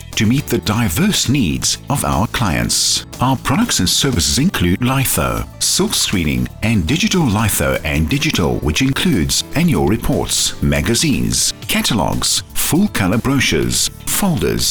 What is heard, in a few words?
Printers Power ADVERT; Valmac